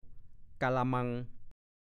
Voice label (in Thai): "กาละมัง", ภาษาโคราช